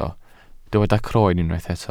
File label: "croen", welsh